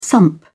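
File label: "sump", British English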